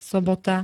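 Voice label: "sobota", Slovak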